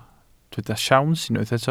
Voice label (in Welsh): "siawns", Welsh